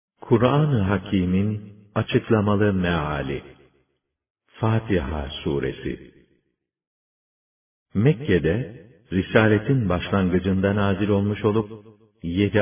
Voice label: ترجمه گویای قرآن کریم به زبان ترکی استانبولی; سوره فاتحه

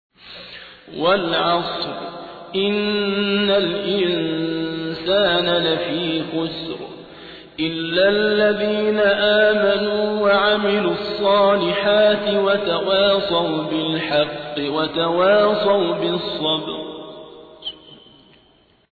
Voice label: ترتیل سوره عصر; صابر عبدالحکم

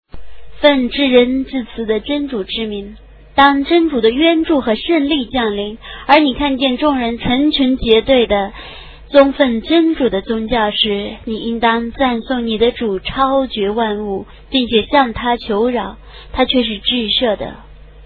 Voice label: ترجمه گویای قرآن کریم به زبان چینی; سوره نصر

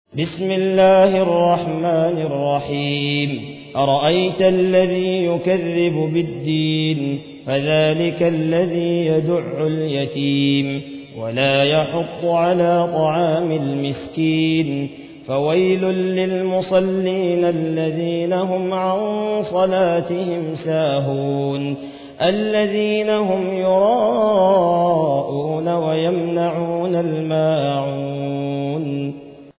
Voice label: ترتیل سوره ماعون

